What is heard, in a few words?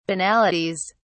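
"banalities", British English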